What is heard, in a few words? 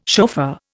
"chauffeur", Indian